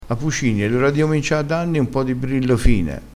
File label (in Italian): "brillo", vocabolario dialettale